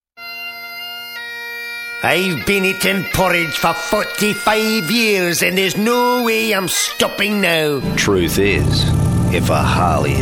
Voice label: character

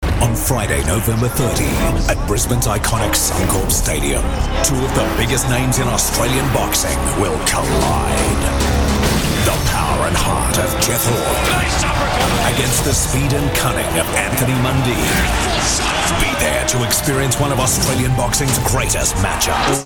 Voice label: Australian Boxing

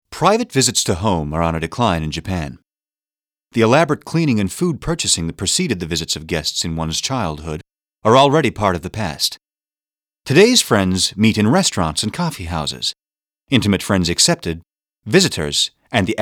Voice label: corporate

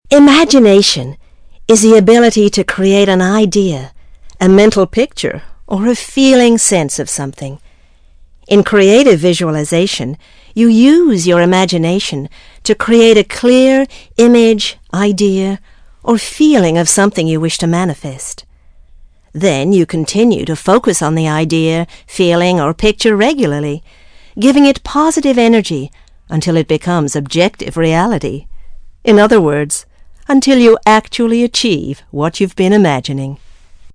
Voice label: american

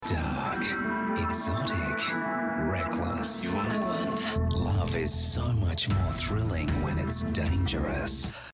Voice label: Dark Exotic

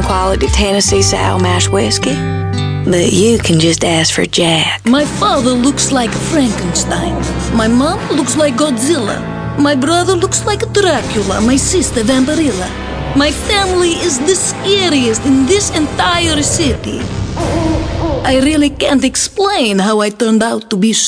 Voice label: character

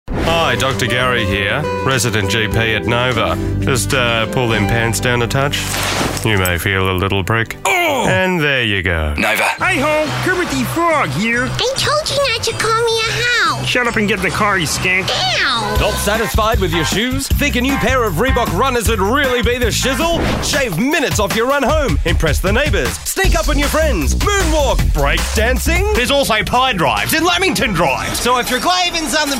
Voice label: character; EM Voices

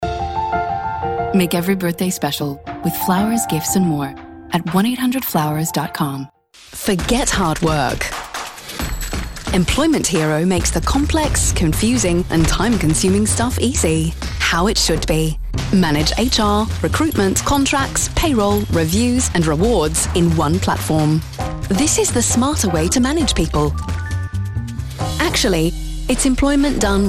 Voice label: ACCENT

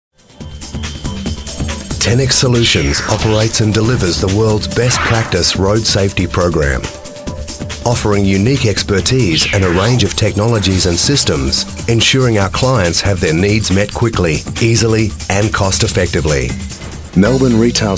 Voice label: corporate; EM Voices

